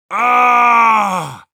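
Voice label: Heavy jeers Russian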